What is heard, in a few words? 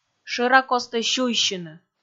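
"шӧракостӧ сюйсьыны", Komi, female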